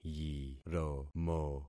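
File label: "yiromo", concatenated syllables; Affixing experiment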